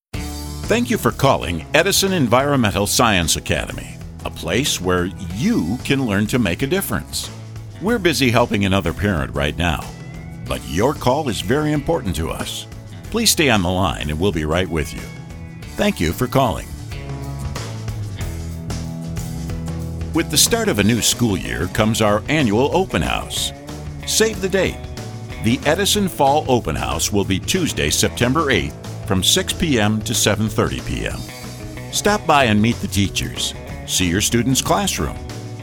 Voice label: Voice Talent IVR